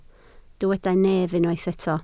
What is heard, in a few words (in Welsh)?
"nef", Welsh